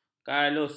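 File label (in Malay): "kalus", Malay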